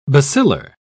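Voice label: "bacillar", British English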